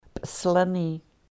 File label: "p'i-slani", sahaptin